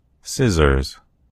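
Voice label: "scissors", American English; gcompris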